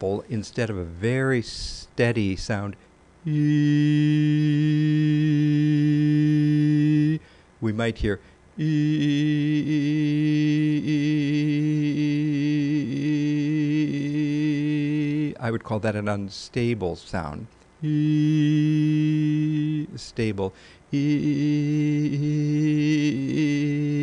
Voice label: Vocal Instability